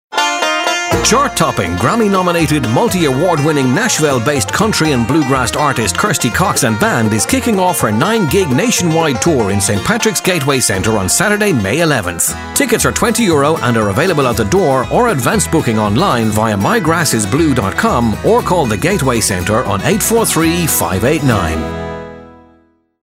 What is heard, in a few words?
Radio Ad